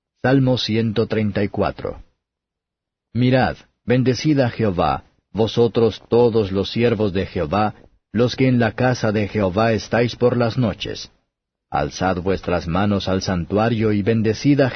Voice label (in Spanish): salmos spanish